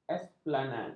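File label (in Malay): "esplanad", Malay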